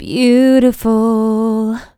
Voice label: "Beautiful" - A min, Vocals, One-Words; GhostHack

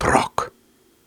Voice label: Soft Syllables and Imaginary Words, Viking Vocal

